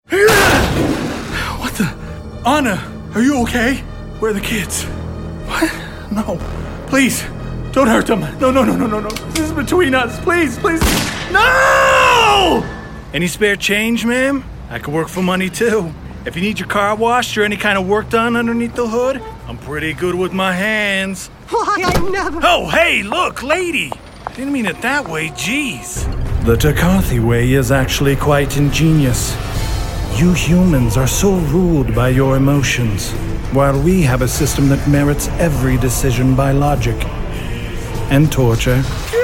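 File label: voice over video game; global voice acting academy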